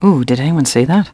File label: ENG; Cars; XBOX